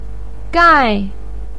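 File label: "gai" fourth tone, chinese, voice pinyin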